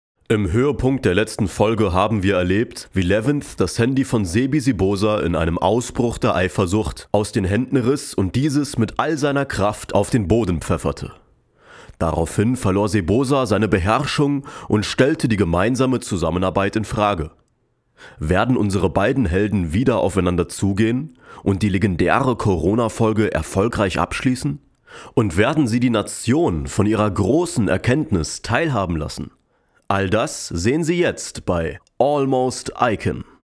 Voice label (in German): Kommentator